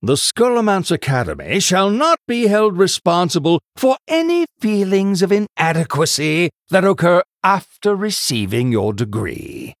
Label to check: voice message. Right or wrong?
Wrong